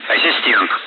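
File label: Speech, Russian, air traffic control; Callsign; Assistent; DCS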